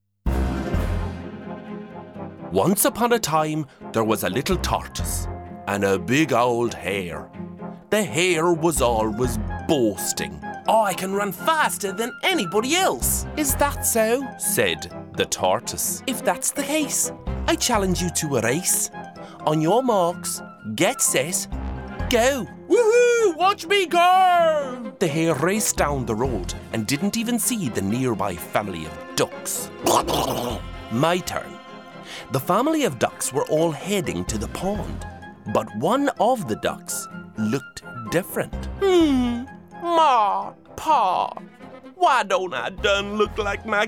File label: Animation Character Accents